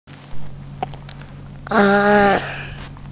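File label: "a1", Mandarin Chinese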